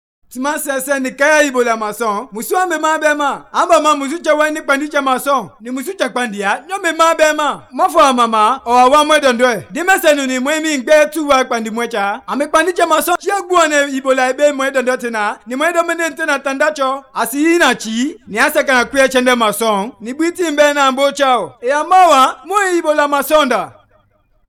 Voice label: Kono, radio spots; ebola

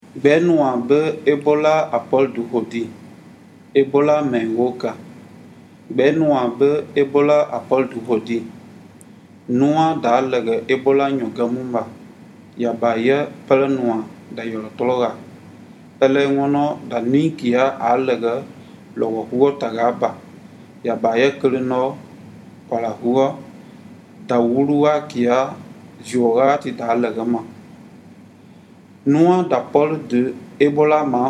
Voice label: guerze; ebola; radio-spots; vhf

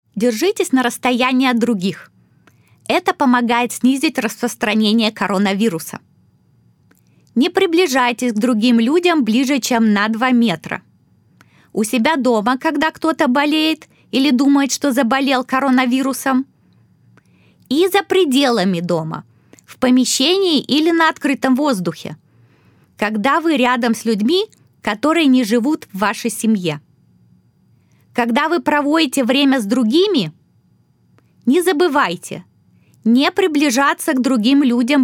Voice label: PSA Russian; Key Time to Practice Social Distancing; coronavirus

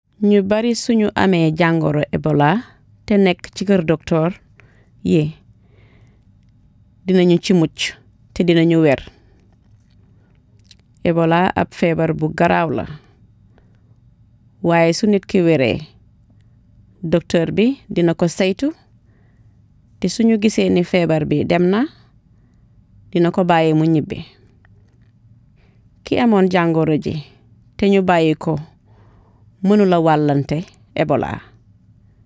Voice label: wolof; ebola; radio-spots; vhf

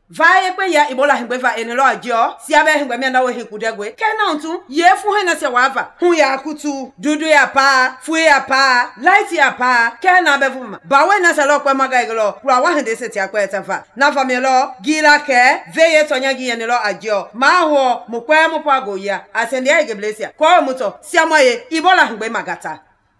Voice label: Mende; ebola; radio-spots

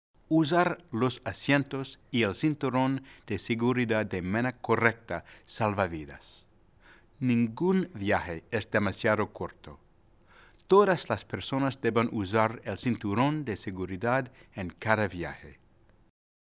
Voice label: Car Safety Spanish; Seguridad Ninos Auto

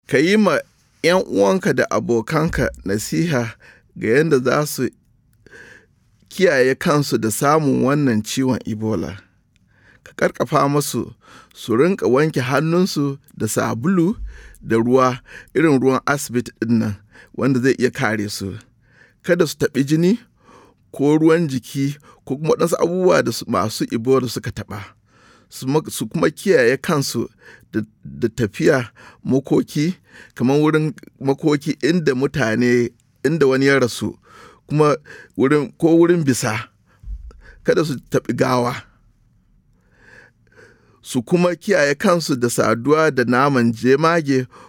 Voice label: Hausa Message, radio spots; PSA; ebola; vhf

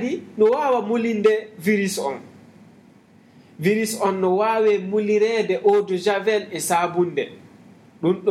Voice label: fulani, ebola radio spots; vhf

